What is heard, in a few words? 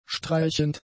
"streichend", German; adjectives